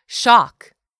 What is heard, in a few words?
"shock", English; 3000 words